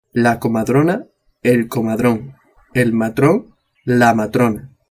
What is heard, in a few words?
"comadrona", spanish